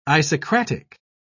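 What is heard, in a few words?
"isocratic", British English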